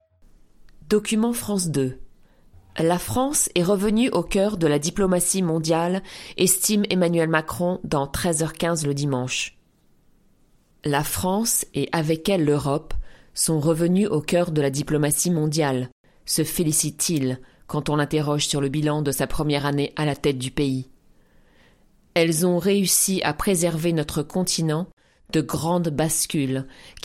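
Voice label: French, Corporate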